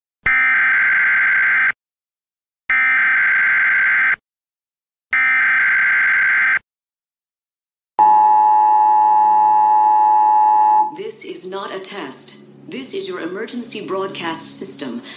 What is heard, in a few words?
purge announcement; garrysmod